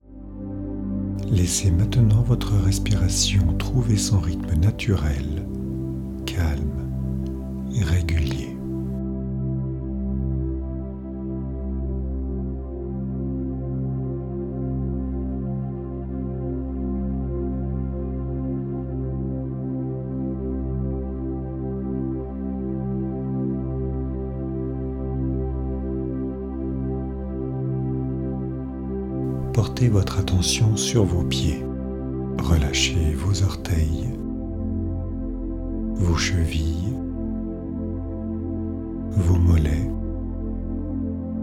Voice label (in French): Meditation guidee pour l'endormissement profond